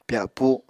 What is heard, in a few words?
"pyapu", Apatani